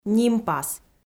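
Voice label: "нимпас", Komi, female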